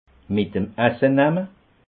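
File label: "metem", Alsatian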